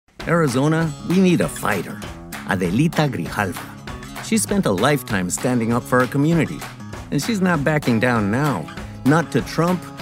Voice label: Political Bilingual